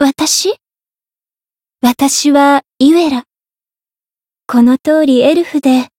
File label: story, jp; ewella